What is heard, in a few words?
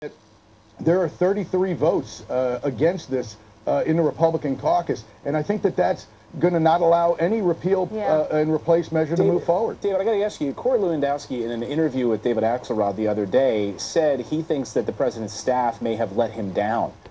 Streamed Microphone; My microphone streaming solution worked!